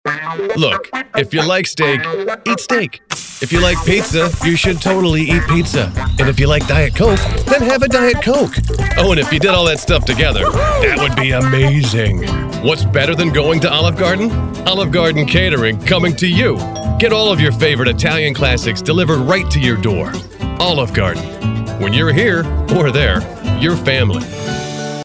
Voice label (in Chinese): 轻快广告